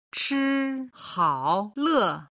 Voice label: "chifanle", Chinese

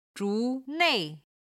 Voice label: "zhu2 nei4", Chinese

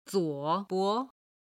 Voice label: "zuo bo", chinese

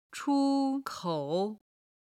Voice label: "chu1 kou3", Chinese

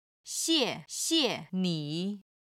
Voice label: "xie4 xie5 ni3", Chinese

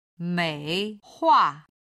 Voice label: "meihua", Chinese

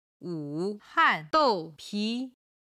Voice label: "wu3 han4 dou4 pi2", chinese

